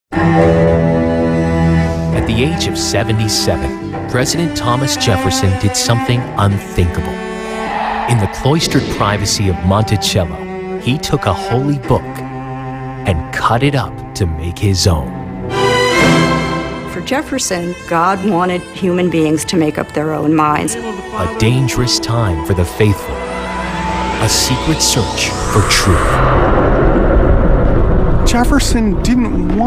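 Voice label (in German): Dokumentarfilm Erzahler Jugendfrei, English, male; NATIVE PROFISPRECHER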